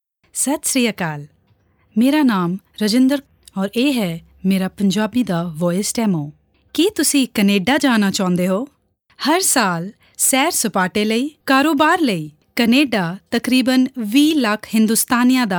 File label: punjabi promos